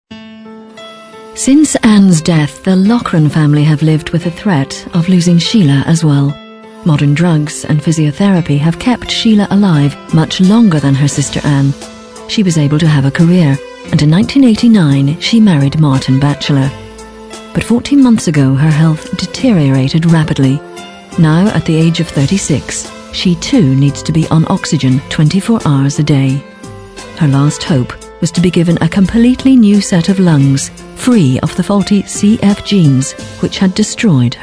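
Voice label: English Northern Irish F Narration, accent of ireland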